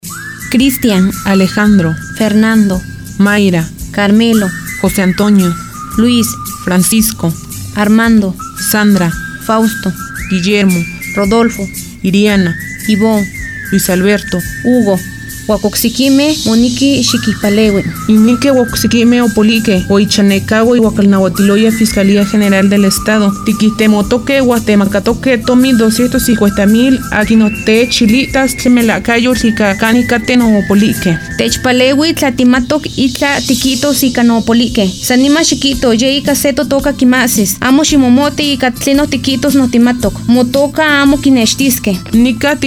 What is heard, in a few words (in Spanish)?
Náhuatl del centro de Veracruz; FI Orizaba